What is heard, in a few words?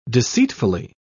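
"deceitfully", English, British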